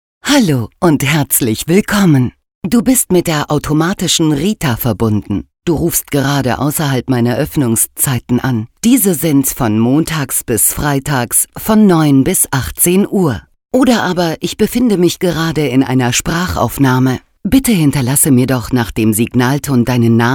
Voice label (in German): Telefonansage D; LOFT VOICES